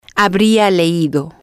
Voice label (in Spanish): "habría leído", Spanish